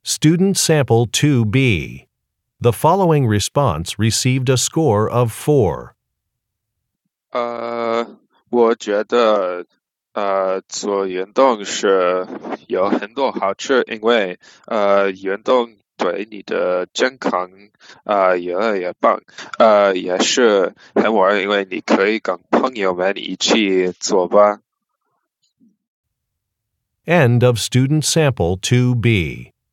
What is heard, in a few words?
chinese conversation